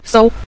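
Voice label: "sou", Mandarin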